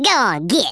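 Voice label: "leave me alone" spoken, Redneck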